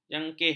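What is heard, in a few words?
"jangkih", Malay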